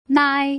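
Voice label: "nai", Mandarin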